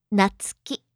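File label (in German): "Natsuki", japanische Mädchennamen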